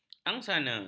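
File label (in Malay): "angsana", Malay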